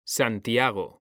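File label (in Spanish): "santiago", male; mekanta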